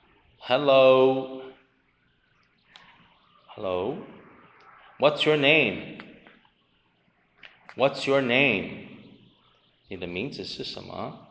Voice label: conversation